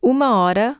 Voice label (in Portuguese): portuguese 1 hour; samsung YP-U5; Audio User Interface